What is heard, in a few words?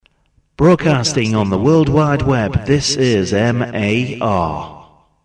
Shoutcast, Voiceovers; MAR